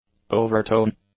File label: "overtone", English; nouns